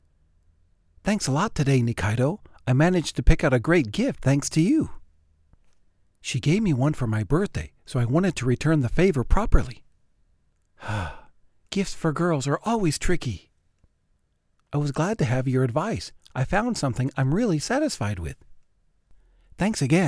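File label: US English, male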